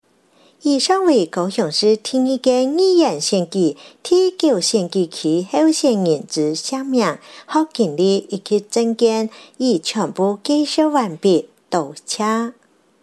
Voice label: 客語; 高雄市; 高雄市市長議員有聲選舉公報; 第九選區

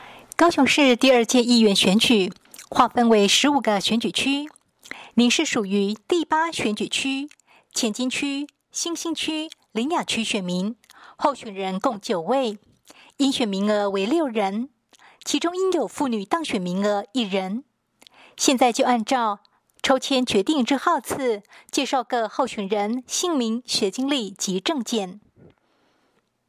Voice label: 國語; 高雄市; 高雄市市長議員有聲選舉公報; 第八選區

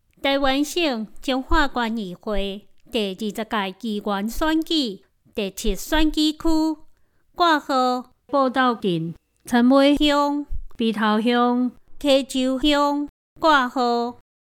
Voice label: 臺語; 彰化縣; 縣議員; 第七選舉區; 有聲公報

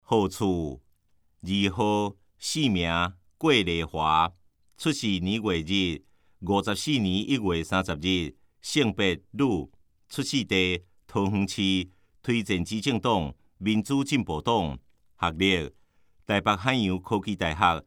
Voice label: 台語; 有聲公報; 市議員

